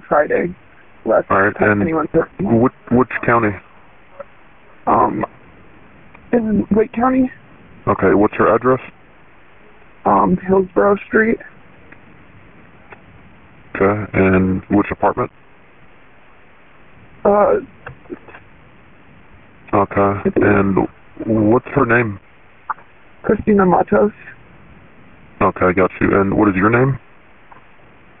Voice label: 911 call; Hillsborough St; RALEIGH